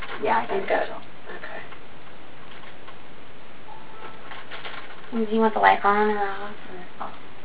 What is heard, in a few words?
very small voice of child